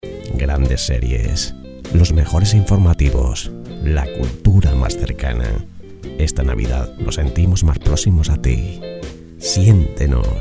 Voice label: locutores navidad musica libre; Más cerca. Ideal para televisiones y radios locales. Versión con música libre de derechos de autor

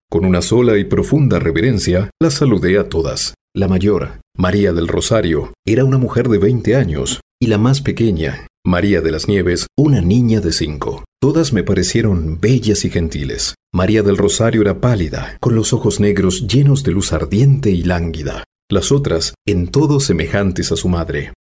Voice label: locutor espanol neutro latin american voiceover; Latin American Spanish voice over; locutor latino

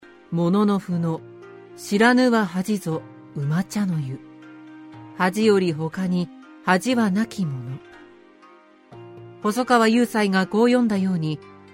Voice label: locutora japonesa japanese voiceover

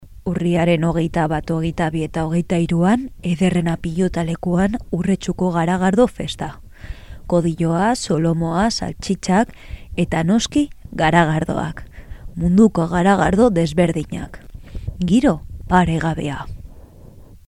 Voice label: locutora euskera60, voces ancianos

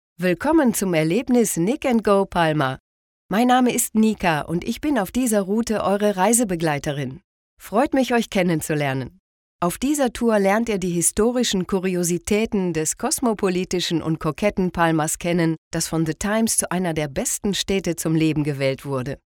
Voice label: locutora alemana german voiceover, Grabación de guía cultural y turística

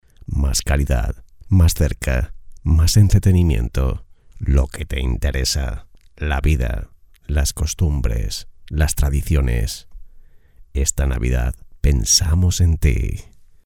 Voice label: locutores navidad calidad; Felicitación navideña para emisoras de televisión. Número 6. Más calidad para su programación

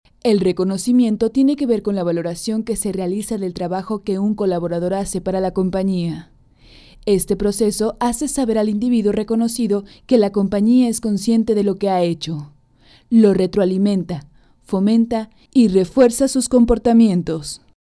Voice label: locutora mexicana; locutora mejicana

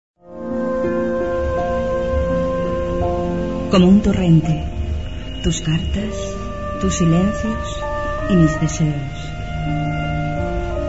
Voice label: radio programa insinuante; Programa "Como un Torrente" número 100 : Insinuarte

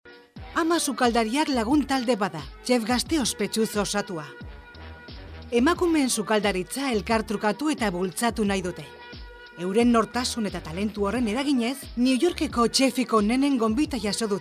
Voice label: locutora euskera basque voiceover; locutora de euskara

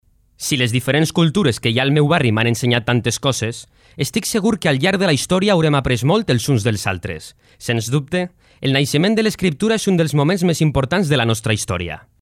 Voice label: locutor valenciano

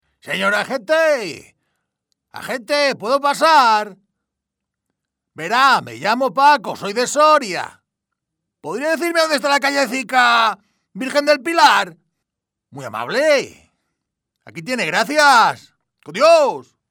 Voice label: locutor imitador; locutor imitador de Paco Martínez Soria

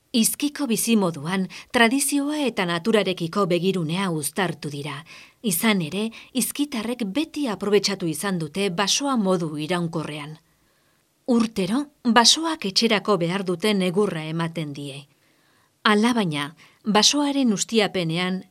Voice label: locutora euskera basque voiceover; locutora y actriz de doblaje vasca

